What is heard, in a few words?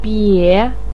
"bie3", Chinese pinyin voice